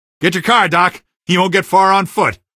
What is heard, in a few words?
your car sir, female; hl2; coast; odessa; Source engine